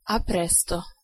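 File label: "a presto", Italian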